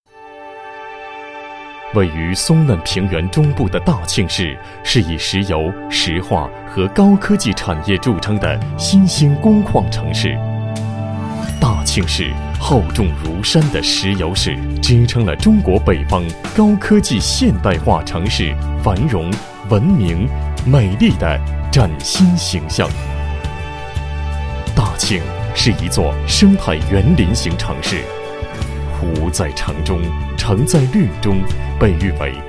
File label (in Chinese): 男 专题; 大庆油田